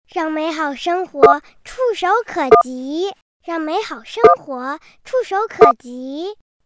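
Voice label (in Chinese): 女大音-女童-让美好生活触手可及; 真童配音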